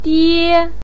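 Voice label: "die" first tone, Mandarin Chinese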